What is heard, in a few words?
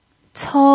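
"cou" first tone, Mandarin Chinese pinyin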